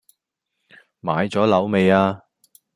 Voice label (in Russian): Голоса, Гонконгский